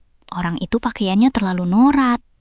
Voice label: indonesia, negative phrases